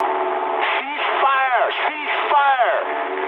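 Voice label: English player friendly fire mood medium; War Thunder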